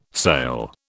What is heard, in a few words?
"sail", British